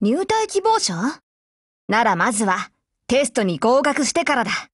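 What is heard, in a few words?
build over, voice, Japanese; GF Alarm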